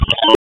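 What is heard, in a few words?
Fire Department HQ Dispatch; RFD HQ DISP; p25; wake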